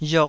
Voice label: "riok2", taiwanese syllable